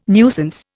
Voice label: "nuisance", Indian

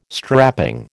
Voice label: "strapping", American

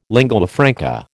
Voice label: "lingua franca", American

